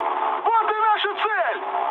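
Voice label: Russian near waypoint mood high, speech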